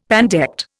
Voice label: "pandect", Indian; Dictionary